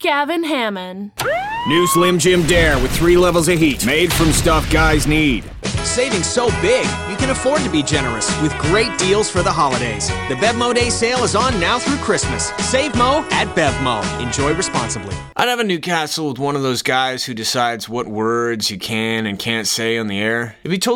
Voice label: commercial, men